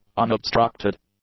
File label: "unobstructed", English; adjectives